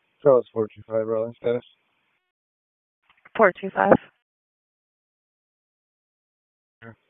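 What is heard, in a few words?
Raleigh Police Southeast District Dispatch, p25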